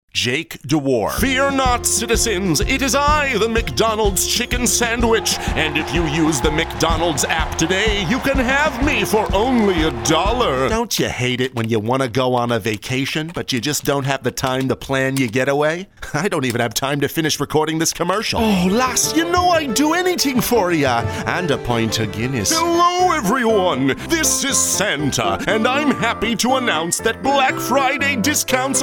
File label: Character VO